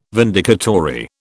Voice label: "vindicatory", British